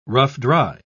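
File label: "rough-dry", British English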